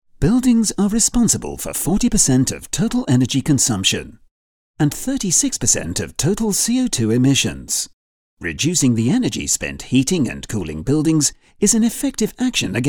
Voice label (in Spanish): narración inglés UK